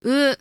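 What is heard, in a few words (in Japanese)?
"U", Japanese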